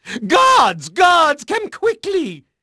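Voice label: English male; Pirates Of The Caribbean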